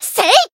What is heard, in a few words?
Voice attack jp